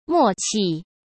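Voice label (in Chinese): "默契", Mandarin Chinese; hsk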